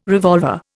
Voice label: "revolver", Indian